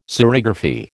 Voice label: "serigraphy", American

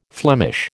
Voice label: "Flemish", American

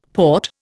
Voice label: "Porte", Indian; Dictionary

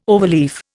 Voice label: "overleaf", Indian

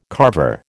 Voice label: "Carver", American

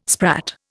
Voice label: "sprat", Indian

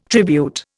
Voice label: "tribute", Indian

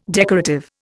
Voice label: "decorative", Indian

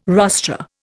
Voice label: "rostra", Indian

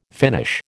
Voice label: "Finnish", American

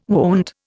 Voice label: "wont", Indian

